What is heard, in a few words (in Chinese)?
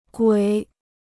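"鬼" Chinese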